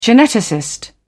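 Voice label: "geneticist", British English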